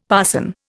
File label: "parson", Indian; Dictionary